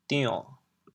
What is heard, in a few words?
"dion3", chaoyang